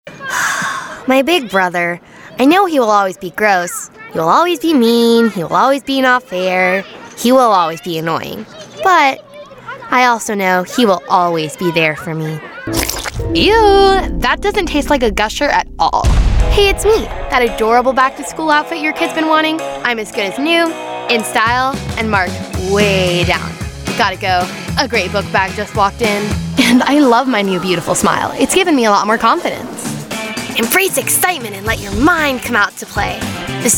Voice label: kids commercial; Tween/Teen. Warm, wry, conversational, friendly, snarky, and sweet.

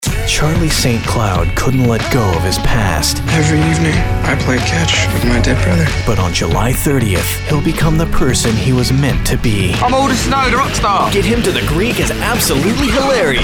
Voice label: men trailers; Young. Real. Conversational. Guy next door. Down to earth. Non-announcery. Not 'selly'.

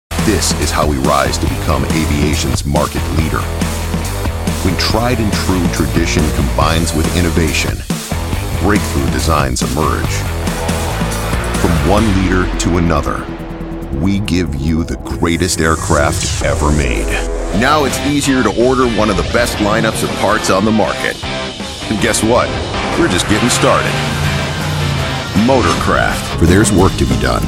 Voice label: men corporate; Unstoppable blue-collar voice that breaks through the noise.

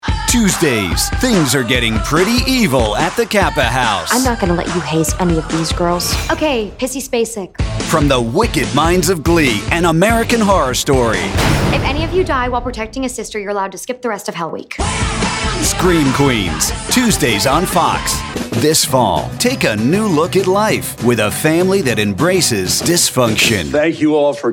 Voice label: men promos; Warm, personable, and normal-sounding guy. Versatile and direct-able.

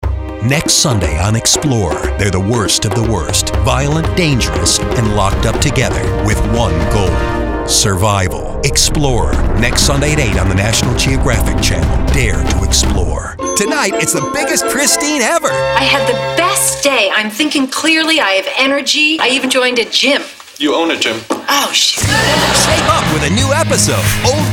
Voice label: men promos

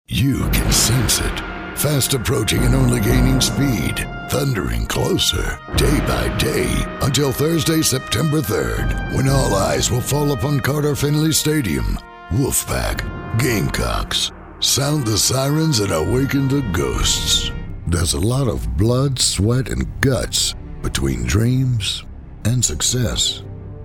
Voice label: men sports; Deep, warm and hard. Mature, husky, gritty, and distinctive.

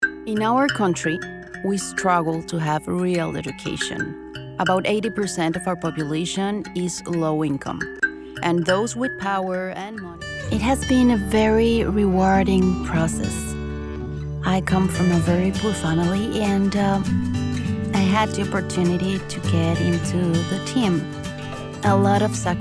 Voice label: spanish accented english; (S) Colombian Spanish Speaker. Warm and Approachable. Engaging and Inspirational.

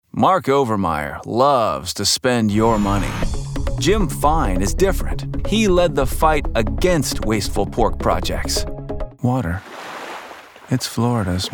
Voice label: men political; Friendly, believable and the trustworthy voice of your tech-savvy neighbor.

